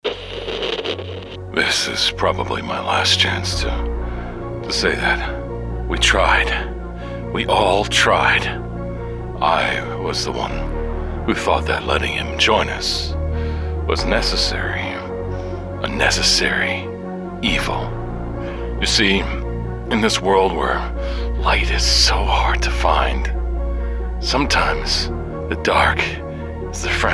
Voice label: english american movie trailers